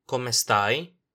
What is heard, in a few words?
"come stai", Italian